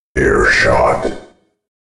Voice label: airshot, announcer, male